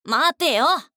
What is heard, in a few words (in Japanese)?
「待てよ」, 女の子, オラオラ系少女; 呼び止める